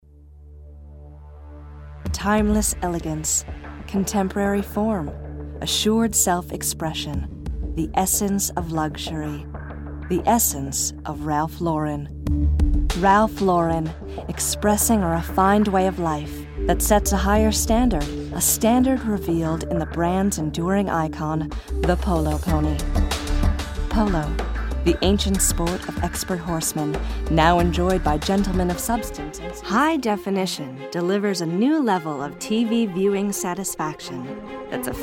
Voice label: narration, women